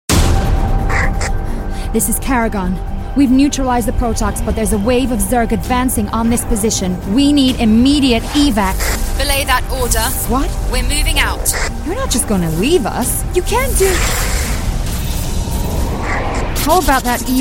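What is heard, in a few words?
Bulgarian Accented English; GoLocalise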